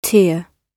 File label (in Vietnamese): "tear" nuoc mat, GB